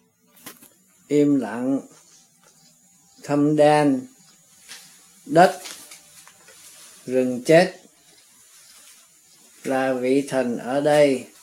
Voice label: Tanka Sequence Vietnamese